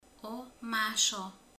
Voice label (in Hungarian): hal, romani